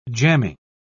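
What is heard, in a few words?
"jamming", British English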